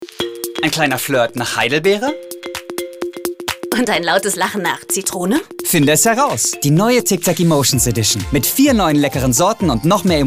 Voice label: Werbung; tictac

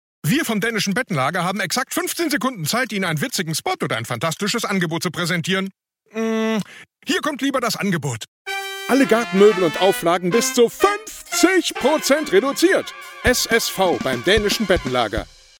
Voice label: werbung

